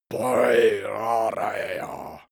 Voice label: male curse evil